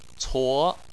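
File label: "cuo" second tone, Chinese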